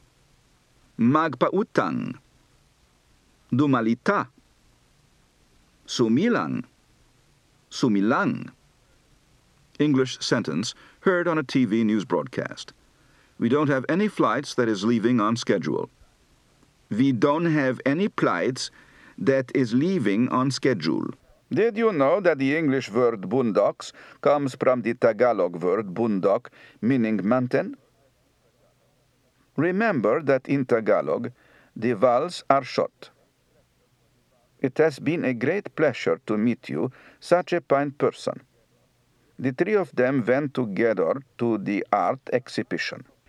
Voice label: The Philippines, Accent